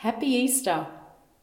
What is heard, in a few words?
happy easter, echo